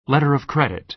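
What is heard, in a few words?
"letter of credit", American English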